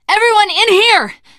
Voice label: stay together inside, survivor voice teen girl; source engine; left 4 dead 2

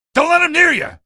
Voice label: alert rollers, male; video games; npc; source engine; episodic; episode 1

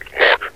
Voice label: "lock", metropolice; hl2; Source engine

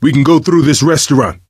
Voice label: misc directional, coach, survivor voice

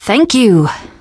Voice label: thanks, teen girl; voice; source engine; left 4 dead 2; survivor

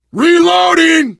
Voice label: reloading, biker, survivor voice; source engine; left 4 dead 2

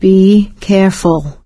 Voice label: warn careful, survivor voice; source engine; left 4 dead 2; producer

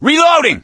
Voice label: reload intense, gambler, survivor voice; source engine; left 4 dead 2

